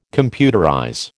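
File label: "computerize", American English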